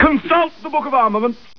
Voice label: male taunt; starsiege